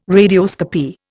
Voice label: "radioscopy", Indian